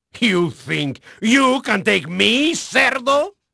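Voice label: Spanish male, voice; Pirates Of The Caribbean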